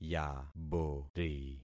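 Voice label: "yabori", concatenated syllables; Affixing experiment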